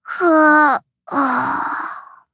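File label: hit, traditional Chinese; Cookie Run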